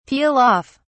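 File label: "peel off", English, British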